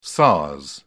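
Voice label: "sars", British English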